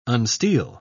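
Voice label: "unsteel", British English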